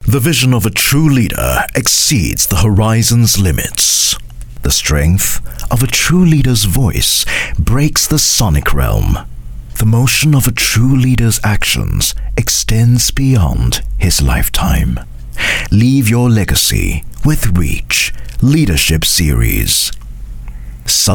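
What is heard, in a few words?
Asian English corporate branding commercial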